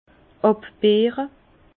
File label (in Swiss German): "abbeere", Bas Rhin; vin; égrappage